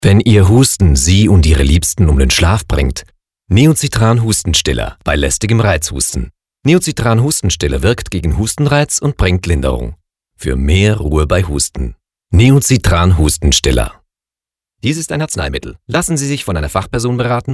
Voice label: Werbung D; NEOCITRAN

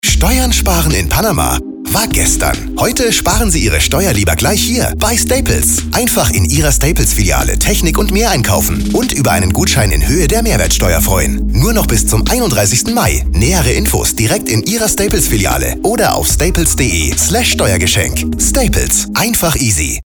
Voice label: Spot; Staples Steuergeschenk

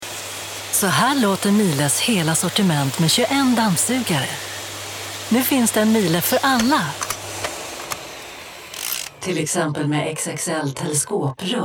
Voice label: Radio; MIELE

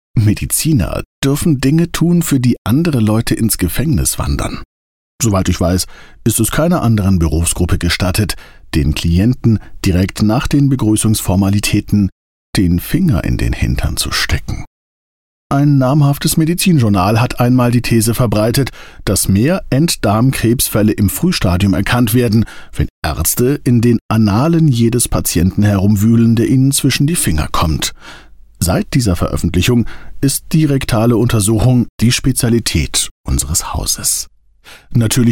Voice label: Hörbuch ironisch; Das Simpsons Syndrom

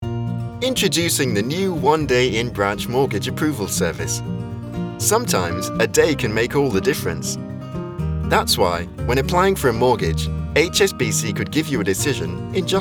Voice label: Imagefilm; HSBC

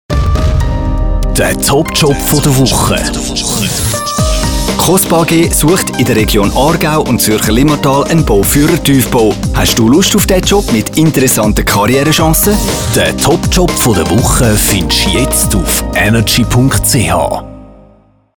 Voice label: Presenting Voice Radio Intro Outro; Energy Top Job

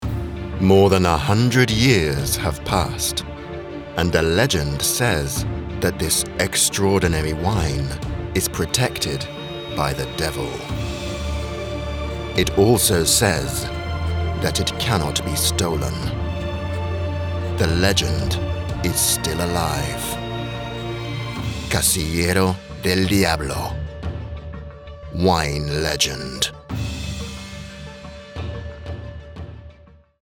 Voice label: Werbung; Casillero del Diablo

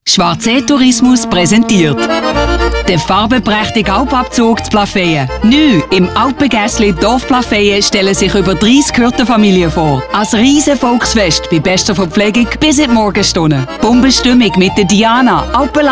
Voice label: Dialekt

